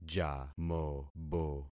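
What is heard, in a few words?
"jamobo", concatenated syllables; Affixing experiment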